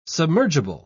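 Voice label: "submergible", British English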